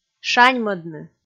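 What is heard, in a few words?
"шаньмӧдны", Komi, female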